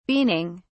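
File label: "beaning", English, British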